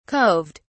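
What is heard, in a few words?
"coved", British English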